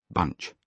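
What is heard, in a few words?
"bunch", British English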